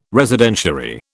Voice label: "Residentiary", British